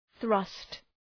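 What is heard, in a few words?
"thrust", English; {ɵrʌst}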